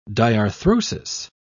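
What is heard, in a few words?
"diarthrosis", British English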